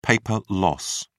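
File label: "paper loss", British English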